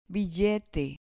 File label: "billete", Spanish